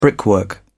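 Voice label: "brickwork", English, British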